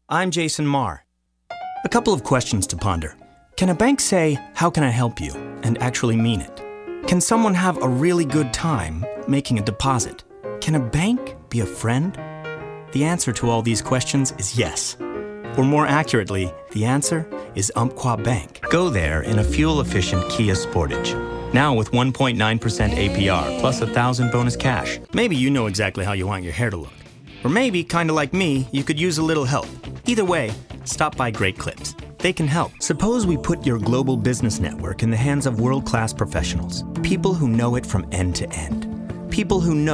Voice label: commercial demo